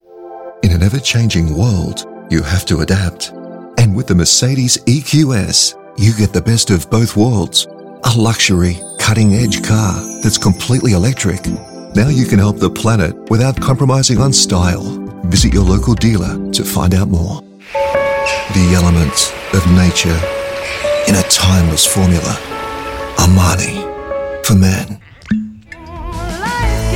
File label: English Australian commercial